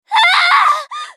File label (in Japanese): ファイター女 死亡